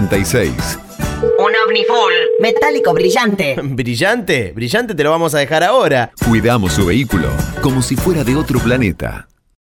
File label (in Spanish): comercial acting lavadero